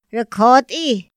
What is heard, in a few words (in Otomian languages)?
ra kjoti, Otomí; Días de la semana; INPI; INALI